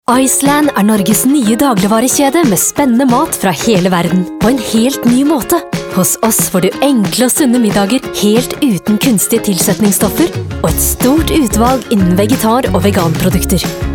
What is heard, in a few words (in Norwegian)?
radiospot åpning; Iceland